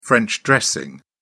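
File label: "french dressing", British English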